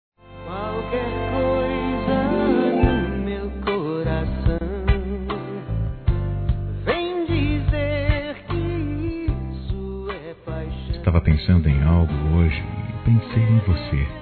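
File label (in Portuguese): ROMANT. SUAVE, Telemensagens, Românticas masculino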